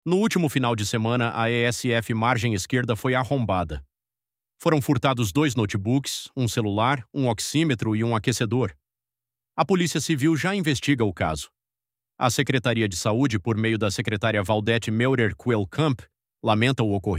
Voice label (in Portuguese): Audio descricao; ESF Arrombamento; Pref S Ludgero